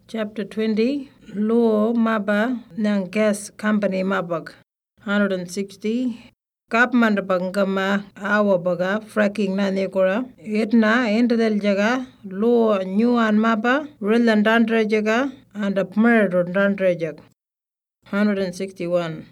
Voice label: Western Arrarnta; Fracking